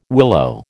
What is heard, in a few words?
"willow", American